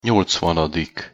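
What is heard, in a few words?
"nyolcvanadik", Hungarian pronunciation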